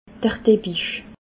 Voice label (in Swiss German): "deppig", alsace